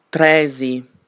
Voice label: "3" Sardinian